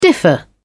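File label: "differ", British English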